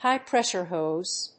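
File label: "HIGH PRESSURE HOSE", English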